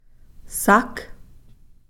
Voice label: "sakh", big rush basket